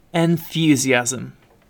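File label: "enthusiasm", British English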